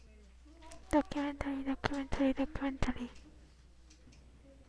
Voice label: documentary

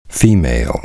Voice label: female

